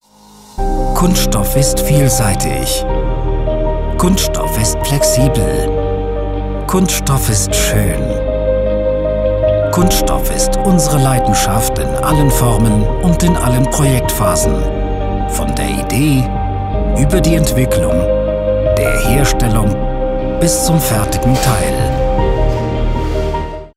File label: Infomercial; Lapptec